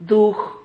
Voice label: "duj", Silabas

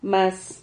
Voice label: "mas", Silabas

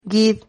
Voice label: "guid", Silabas

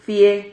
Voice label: "fie", Silabas

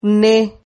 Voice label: "gne", Silabas

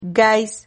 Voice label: "gais", Silabas

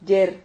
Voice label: "ller", Silabas

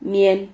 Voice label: "mien", Silabas

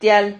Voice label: "tial", silabas

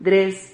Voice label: "dres", Silabas

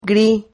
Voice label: "gri", Silabas

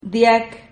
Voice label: "diac", Silabas

